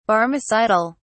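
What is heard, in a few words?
"barmecidal", British English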